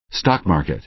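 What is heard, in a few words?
"stock market", American English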